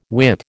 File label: "Whit", American